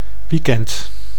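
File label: "weekend", Dutch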